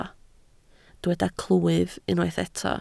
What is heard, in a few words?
"clwyf", Welsh